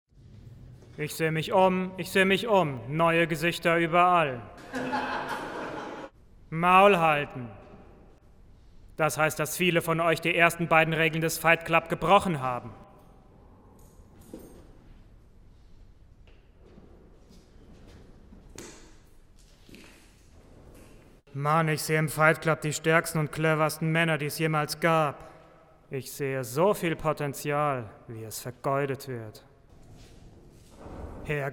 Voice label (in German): Schauspiel Sprechstil Action